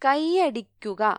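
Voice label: "clap", Malayalam; gcompris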